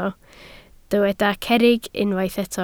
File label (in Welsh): "cerrig", Welsh